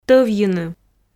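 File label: "тӧвйыны", Komi, female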